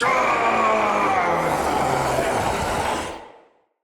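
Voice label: Battle Cry, Battle Barks, Dialog, English; Nimrod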